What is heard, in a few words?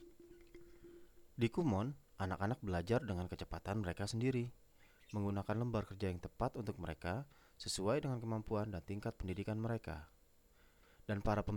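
Indonesian CASUAL, voiceover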